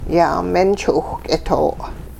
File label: Animals snot, hupa